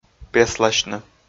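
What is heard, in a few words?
"песласьны", Komi, male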